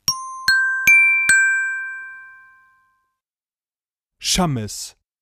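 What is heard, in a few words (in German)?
"Schammes", German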